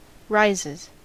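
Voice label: "rises", US English; pronunciations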